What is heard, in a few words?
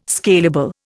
"scalable", Indian